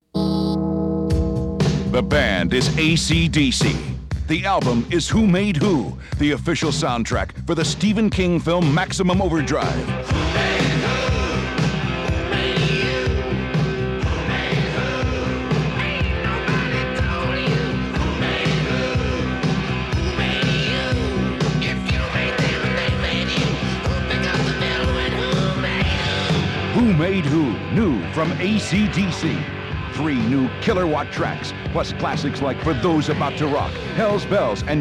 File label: Radio Commercials LP